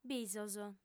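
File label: "bisos", lingua sarda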